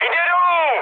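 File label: aircraft destroyed, Czech, mood high; War Thunder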